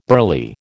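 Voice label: "burly", American English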